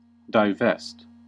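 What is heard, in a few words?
"divest", American English; pronunciations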